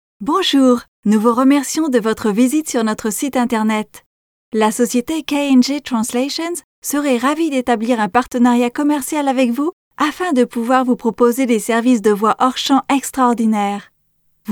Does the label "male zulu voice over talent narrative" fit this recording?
No